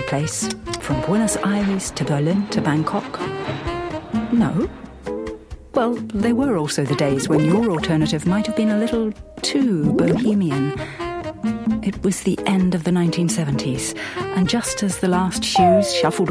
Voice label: relaxed hotels